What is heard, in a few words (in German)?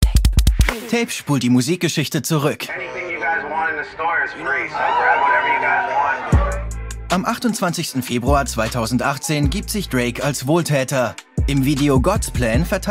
DEUTSCH MALE Voice Over; Arte; Arte Tape; Drake; LOFT VOICES